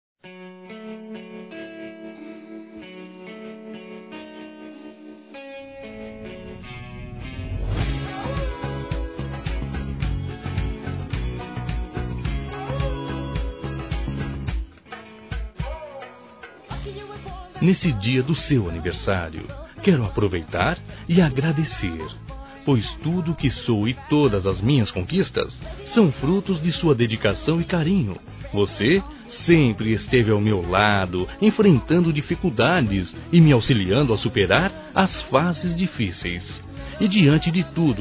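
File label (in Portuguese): Romantica Masculino; Aniversários; ANIVERSARIO romantico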